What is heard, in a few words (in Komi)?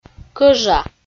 "кӧжа" Komi